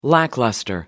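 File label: "lackluster", British English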